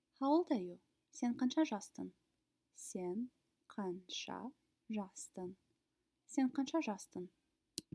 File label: How old are you, kazakh